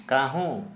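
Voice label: "crow", Santali, male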